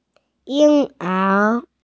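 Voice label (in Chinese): "ying1er2", Mandarin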